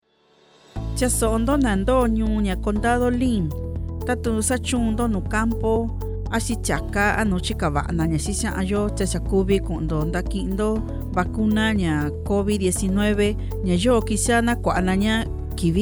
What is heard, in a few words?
Mixteco Bajo; Vaccine Radio Spots; covid19